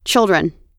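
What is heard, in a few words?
"children", American English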